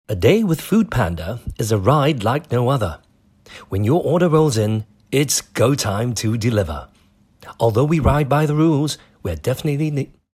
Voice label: Asian English Clean; FoodPanda